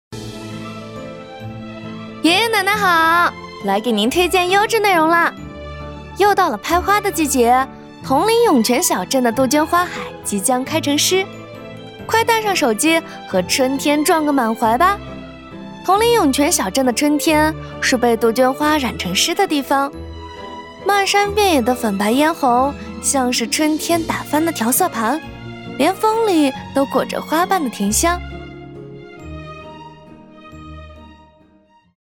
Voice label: 女 文旅 欢快

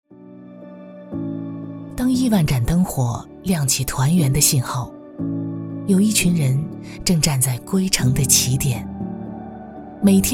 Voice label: 女 纪录片 高速; 昆石高速

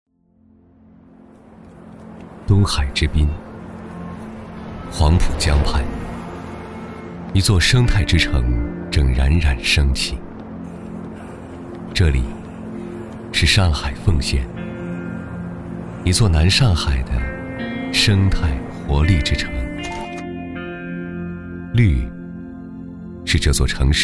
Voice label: 男349 宣传片 试音; 绿润贤城

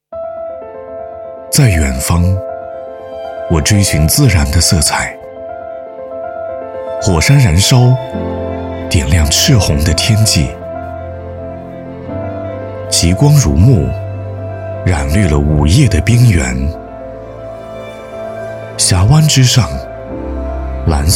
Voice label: 男 走心旁白

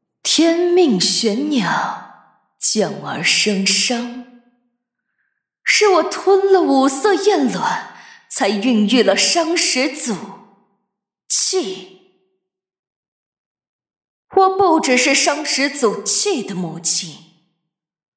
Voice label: 女 游戏角色 御姐

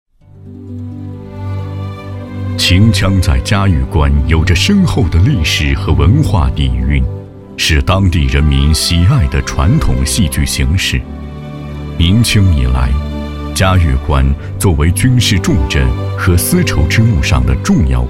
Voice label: 男 纪录片 秦腔

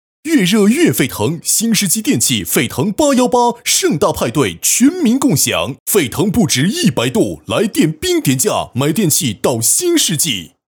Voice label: 男, 促销; 越热越沸腾

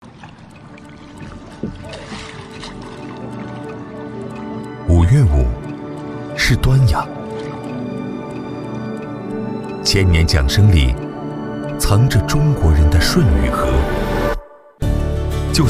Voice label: 男 宣传片 节气假日; 长城润滑油

